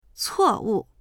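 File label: "cuo4wu4", Mandarin Chinese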